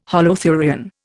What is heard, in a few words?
"holothurian", Indian